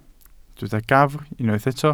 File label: "gafr", Welsh